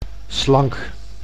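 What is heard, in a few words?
"slank", Dutch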